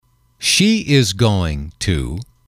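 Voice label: "she is going to", English, male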